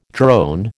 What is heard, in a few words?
"drone", American